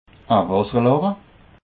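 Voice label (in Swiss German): "wasserlache", Alsatian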